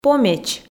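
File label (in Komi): "помеч", Komi, female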